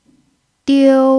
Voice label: "diu1", pinyin